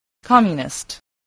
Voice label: "communist", english